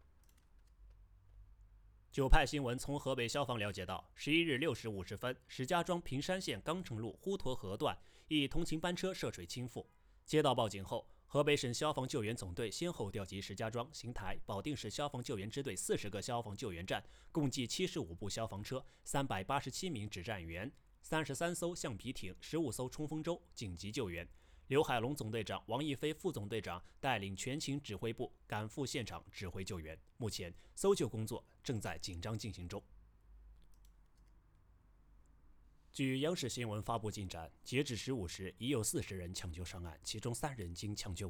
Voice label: 新闻二

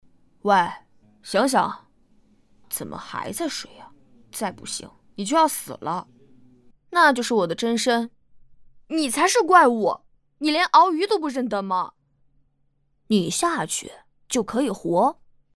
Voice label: 少年音

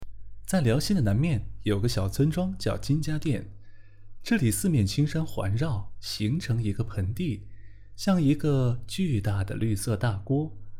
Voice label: 儿童文学 试音; 黑猫群－儿童文学试音

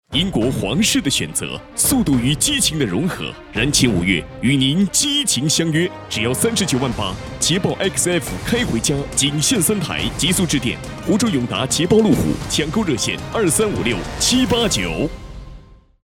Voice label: 汽车广告; 捷豹路虎

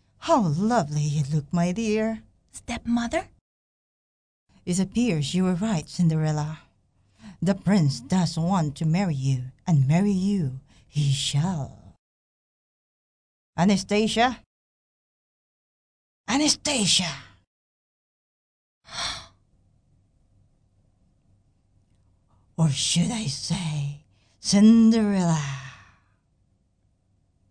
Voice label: 动漫配音

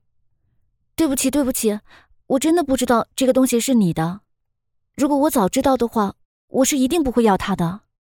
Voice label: 模仿青春少女音

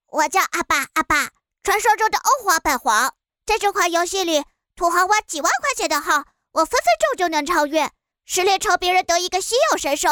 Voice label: 娃娃音 游戏解说

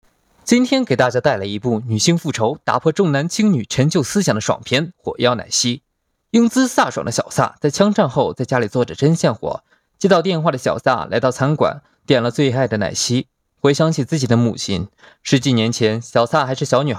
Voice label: 电影解说

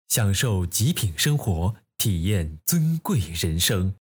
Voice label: 配音

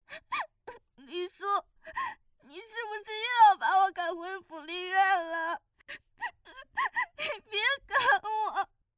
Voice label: 动漫 萝莉 哭泣

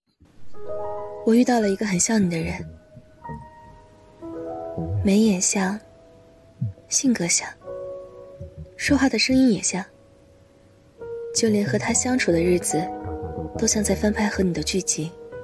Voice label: 情感文案

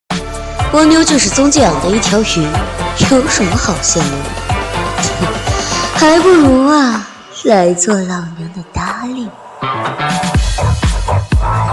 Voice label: 配音

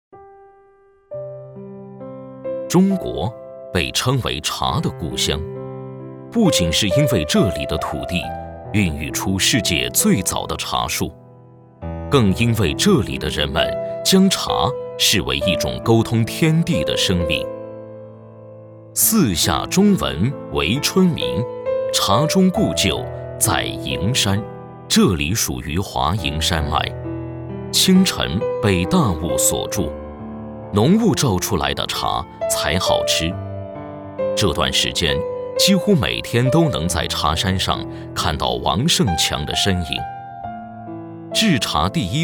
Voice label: 纪录片茶的故乡

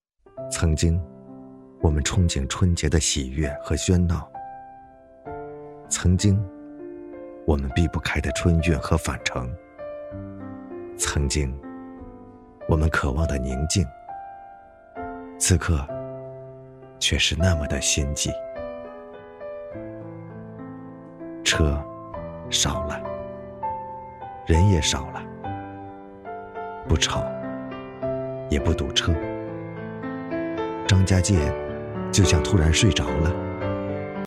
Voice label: 走心 城市宣传片; 最新新冠疫情; 曾经

